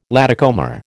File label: "latecomer", American